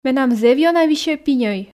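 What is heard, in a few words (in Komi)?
менам зэв ёна висьӧ пиньӧй, Komi, female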